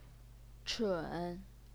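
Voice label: "chun", third tone, Chinese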